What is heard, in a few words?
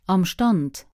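"amstand", Luxembourgish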